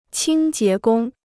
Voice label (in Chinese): "清洁工", Chinese